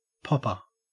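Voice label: "popper", Australian English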